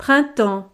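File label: "printemps", French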